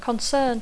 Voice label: "concern", English

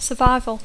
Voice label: "survival", English; supervivència

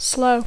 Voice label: "slow", English

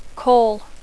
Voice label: "call", English

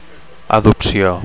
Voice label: "adopcio", Catalan; adoption

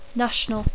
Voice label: "national", English

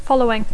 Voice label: "following", English

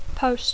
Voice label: "post", English

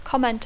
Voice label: "comment", English

